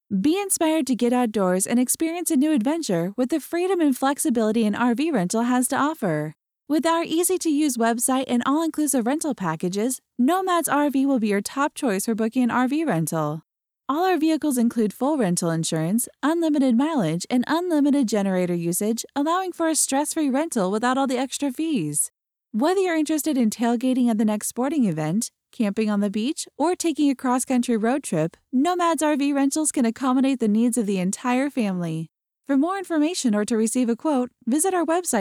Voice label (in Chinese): 外籍英女, 富有情感, 房车租赁广告